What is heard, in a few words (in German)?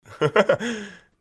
kaito sarkastisches lachen